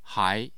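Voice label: "hai", Mandarin Chinese, tone 2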